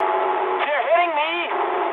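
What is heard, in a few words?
English aircraft damaged mood med; War Thunder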